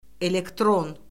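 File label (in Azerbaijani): "elektron", Azerbaijani; Orfoqrafiya Lüğəti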